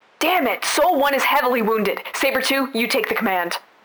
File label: Wound, Heavy Damage Radios; ISBSpecialForces; Aishi